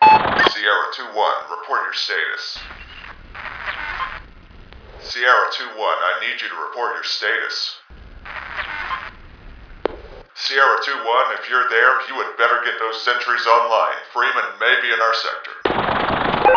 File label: radio; sierra21